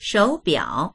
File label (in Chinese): "shou3biao3", voices; shǒubiǎo; 手表; 腕時計